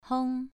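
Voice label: "hong", Mandarin Chinese, second tone, pronunciation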